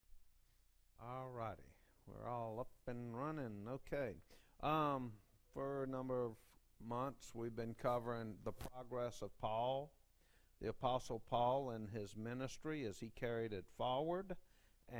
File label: Preaching